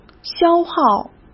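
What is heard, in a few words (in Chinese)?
"消耗", Mandarin Chinese; hsk; chinese-flashcards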